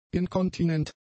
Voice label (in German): "inkontinent", German; adjectives